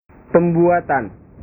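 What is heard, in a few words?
"pembuatan", Indonesian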